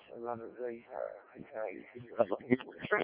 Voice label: decoded speech, 7177KHz